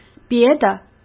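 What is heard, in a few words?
"别的", Mandarin Chinese; chinese-flashcards; hsk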